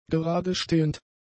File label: "geradestehend", German; adjectives